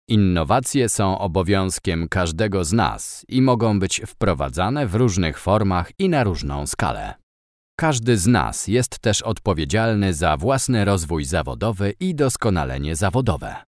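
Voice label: Male Polish e-learning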